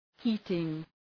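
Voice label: "heating", English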